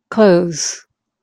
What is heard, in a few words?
"close" verb